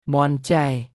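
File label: "មាន់ ចែ", Khmer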